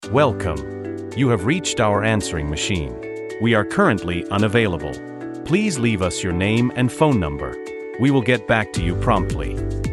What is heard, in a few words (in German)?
klassisch ansage